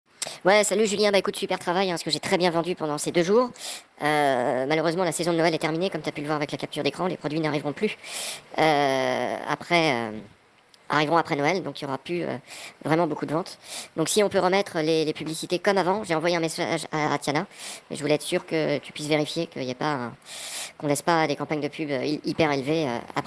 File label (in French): publicite; amazon